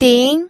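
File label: "ding", pinyin, second tone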